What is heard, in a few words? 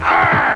arr, pirate guy; ooh ahh